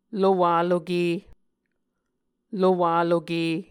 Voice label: "lowaloke", Muscogee